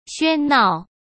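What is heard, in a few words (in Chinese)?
"喧闹", Mandarin Chinese; hsk; chinese flashcards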